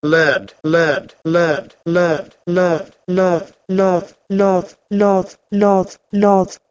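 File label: Proto-Indo-European leh1d to Albanian lodh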